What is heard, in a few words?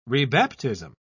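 "rebaptism", British English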